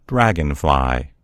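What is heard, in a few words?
"dragonfly", American English; gcompris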